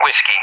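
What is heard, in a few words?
"Whiskey", English; Alphabet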